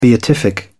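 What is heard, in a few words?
"beatific", British English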